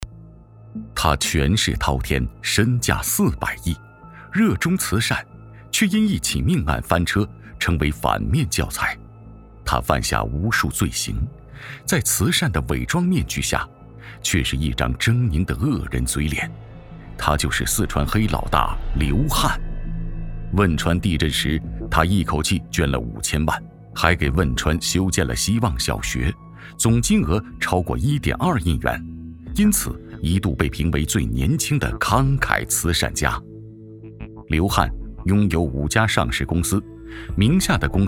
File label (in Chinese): 男, 反腐